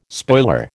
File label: "spoiler", American